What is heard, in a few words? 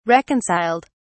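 "reconciled", British English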